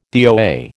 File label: "DOA", American